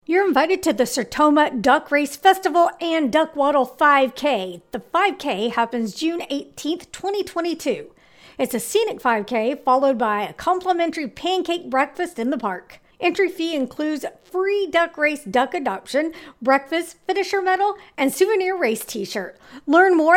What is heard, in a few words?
5K promo; Duck Waddle 2022